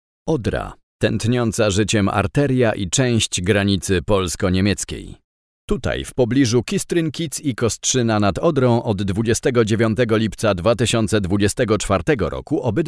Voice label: locutor polaco polish voiceover

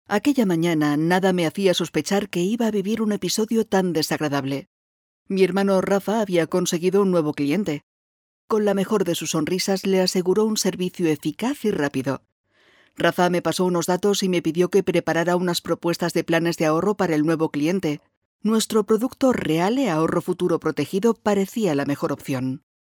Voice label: locutora spanish voiceover; Spanish voice talent

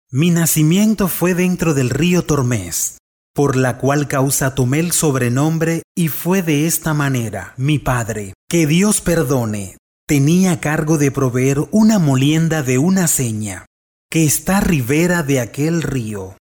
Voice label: locutor venezuela venezuelan voiceover; locutor de Venezuela

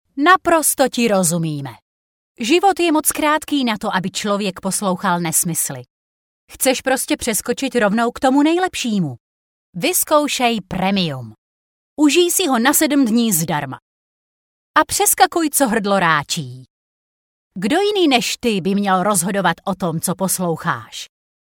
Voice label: czech voiceover locutora checa; Czech female voice over

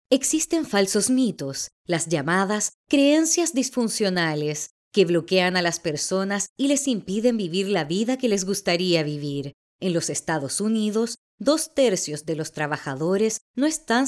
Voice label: locutora chilena chilean voiceover; locutores chilenos

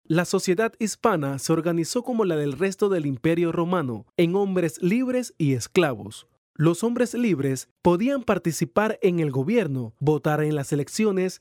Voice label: panamanian voiceover locutor panama

